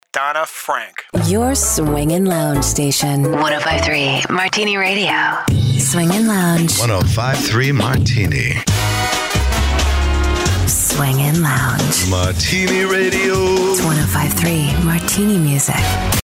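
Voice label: radio female adult contemporary; radio imaging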